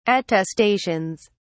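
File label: "attestations", English, British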